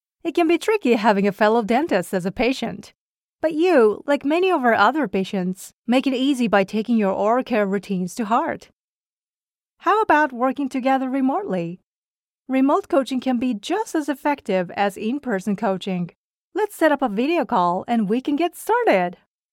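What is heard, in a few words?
Japanese, English accent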